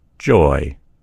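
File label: "joy", American English; gcompris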